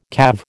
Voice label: "calve", American